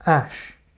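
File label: "ash", English word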